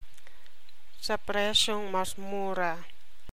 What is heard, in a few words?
tagalog